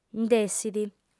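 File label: "nd'essit", Sardinian, female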